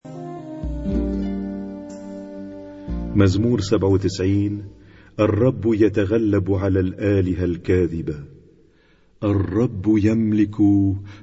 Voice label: Arabic Psalm Zaboor